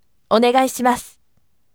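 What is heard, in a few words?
voice, firm; onegaishimasu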